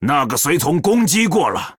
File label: Male Troll ERROR MINION ATTACKED, zh